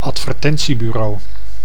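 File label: "advertentiebureau", Dutch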